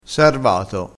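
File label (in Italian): "sarvato", dialettale, crespinese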